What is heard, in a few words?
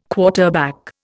"quarterback", Indian